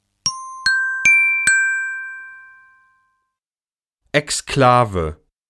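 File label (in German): "Exklave", German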